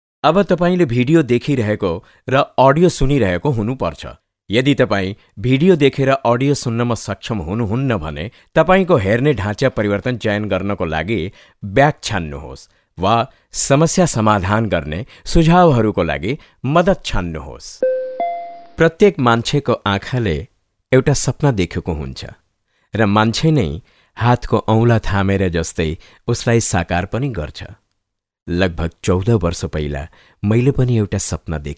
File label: Nepalese Male